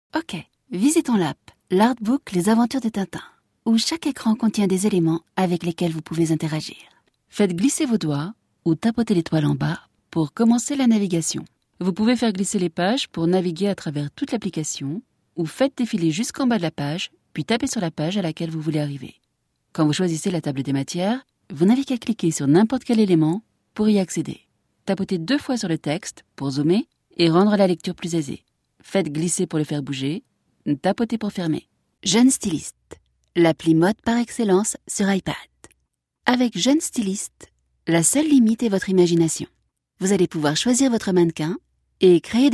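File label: French EU F Educational, female; voice over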